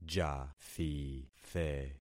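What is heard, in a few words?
"jathithe", concatenated syllables; Affixing experiment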